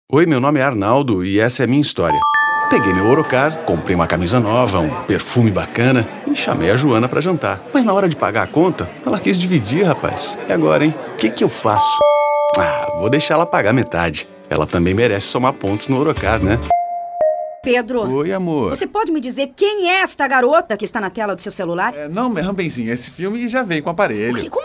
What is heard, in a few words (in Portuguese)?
Locução Ator